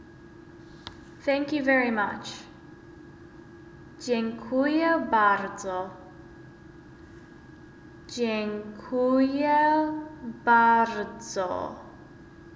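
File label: Polish thank you very much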